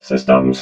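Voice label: "systems", robot voice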